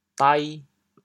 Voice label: "dai2", chaoyang